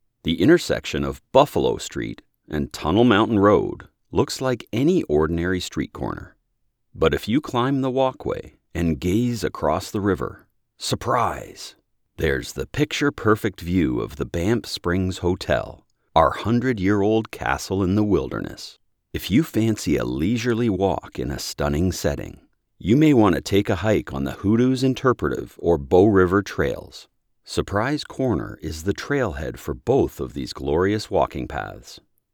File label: Narrator